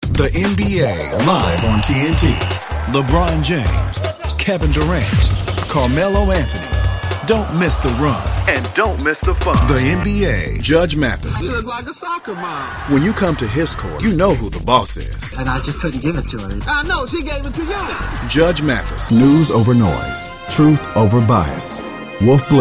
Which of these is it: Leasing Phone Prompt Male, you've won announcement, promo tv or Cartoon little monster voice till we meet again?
promo tv